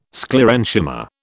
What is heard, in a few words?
"Sclerenchyma", British